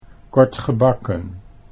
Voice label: "kort gebakken", Dutch